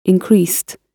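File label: "increased", British English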